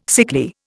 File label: "sickly", Indian